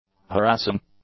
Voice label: "harassing", English; adjectives

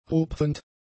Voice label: "rupfend", German; adjectives

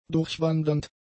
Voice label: "durchwandernd", german; adjectives

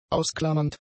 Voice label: "ausklammernd", German; adjectives

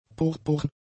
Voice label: "purpurn", German; adjectives

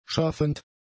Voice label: "schaffend", german; adjectives

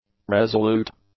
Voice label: "resolute", English; adjectives

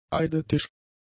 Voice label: "eidetisch", german; adjectives

